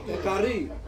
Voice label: "kari", Ansus; to bite